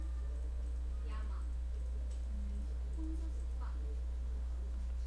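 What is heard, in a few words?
"clock", spoken word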